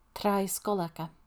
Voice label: "Dinghy Beach", Gaelic